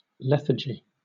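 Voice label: "lethargy", British English; pronunciations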